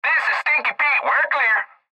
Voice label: Cb Trucker Radio; Radio Voice; Blastwave